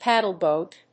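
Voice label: "paddle boat", English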